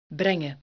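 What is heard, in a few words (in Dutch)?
"brengen", Dutch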